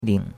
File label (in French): "ling3", chinois; Cours-de-Chinois